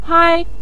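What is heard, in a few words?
"hai", Mandarin Chinese, neutral tone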